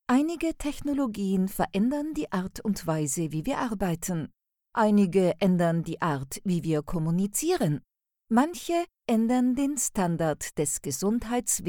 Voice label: elearning technical